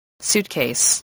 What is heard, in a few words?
"suitcase", voice spelling